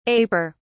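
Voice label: "aper", British English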